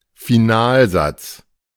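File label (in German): "Finalsatz", German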